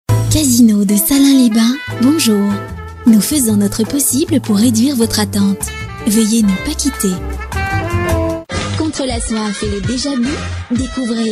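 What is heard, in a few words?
French, female, IVR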